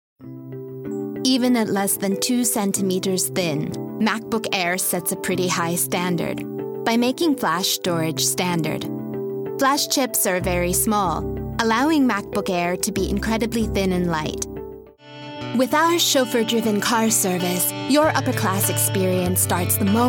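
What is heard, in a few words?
American English, General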